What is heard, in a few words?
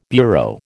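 "bureau", American English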